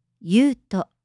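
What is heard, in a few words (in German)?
"Yuuto", japanische Mädchennamen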